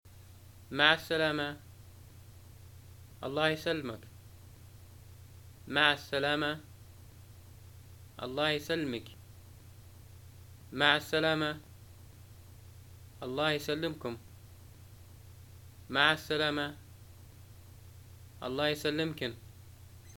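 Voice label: Libyan greeting farewell, arabic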